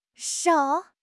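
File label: "shou3", Chinese; HSK2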